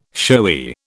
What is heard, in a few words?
"showy", British